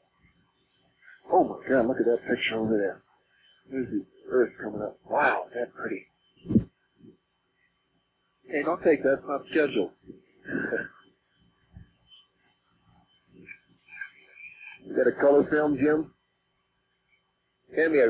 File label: conversation; Earthrise